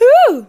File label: "ooh" female; ooh ahh